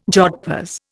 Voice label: "jodhpurs", Indian

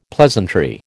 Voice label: "pleasantry", American

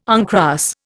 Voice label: "uncross", Indian

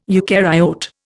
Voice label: "eucaryote", Indian

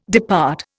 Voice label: "depart", Indian

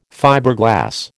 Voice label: "Fiberglass", American

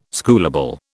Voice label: "schoolable", British

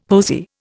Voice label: "posy", Indian; Dictionary

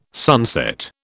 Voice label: "sunset", British

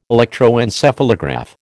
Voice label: "electroencephalograph", American

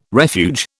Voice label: "refuge", British

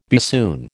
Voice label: "bassoon", American

